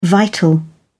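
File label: "vital", English, British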